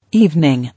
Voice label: "Evening", English